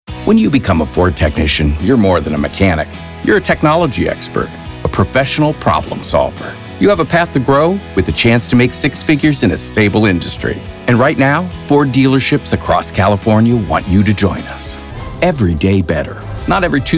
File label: vo narration